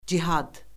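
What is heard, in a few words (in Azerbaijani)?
"cihad", Azerbaijani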